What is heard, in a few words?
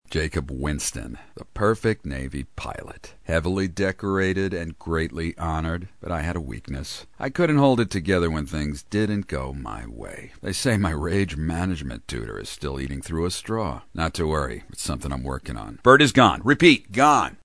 PILOT Cocky; animation